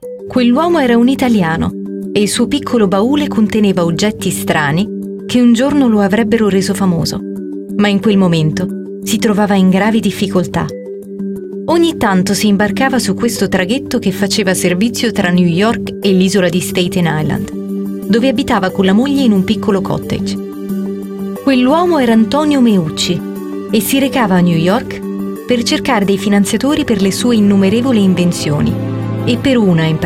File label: Documentary history